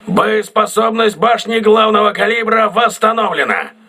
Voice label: voice message main caliber turret repaired, crew ships russian